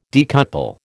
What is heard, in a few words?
"decouple", American